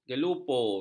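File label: "gelupur", Malay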